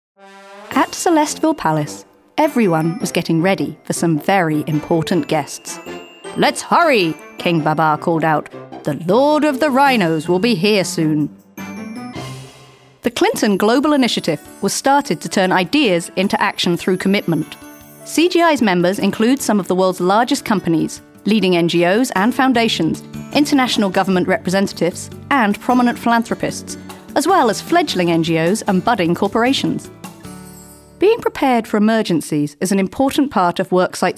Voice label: Voice Demo